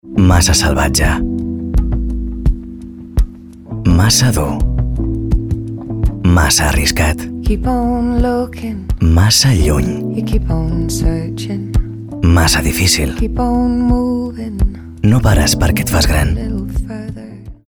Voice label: male catalan car comercial